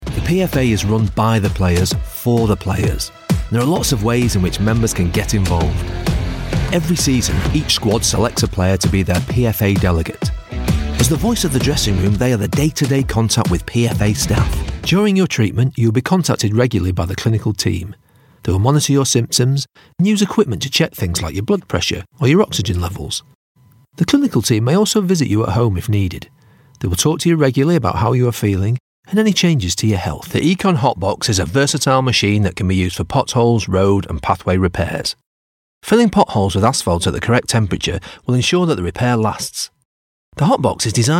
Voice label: explainer